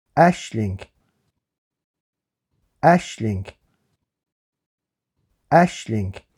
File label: "Aisling", Irish